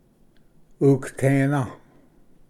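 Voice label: "ugatana", Cherokee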